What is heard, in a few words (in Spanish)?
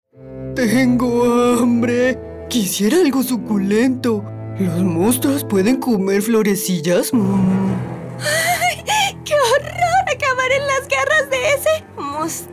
ANIMACION PERSONAJES